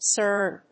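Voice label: "Snr.", English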